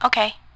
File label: girl okay